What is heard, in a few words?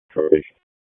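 Police District Dispatch, p25; Raleigh; Northeast; wake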